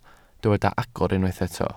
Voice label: "agor", Welsh

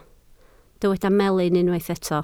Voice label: "melyn" Welsh

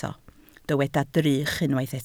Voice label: "drych", Welsh

